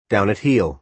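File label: "down-at-heel", American English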